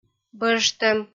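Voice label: "бӧжтӧм", Komi-Zyrian, female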